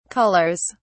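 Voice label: "colours", British English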